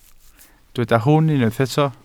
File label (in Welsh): "hwn" Welsh